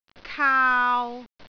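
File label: "kaau3", Cantonese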